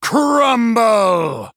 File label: Male Blood Elf Attack